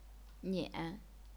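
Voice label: "nian", third tone, chinese pinyin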